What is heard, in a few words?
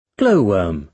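"glow-worm", British English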